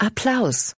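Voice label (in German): "Applaus", German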